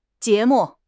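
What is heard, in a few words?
"jie2 mu4", Chinese; HSK3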